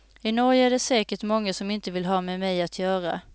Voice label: swedish; GlobalPhone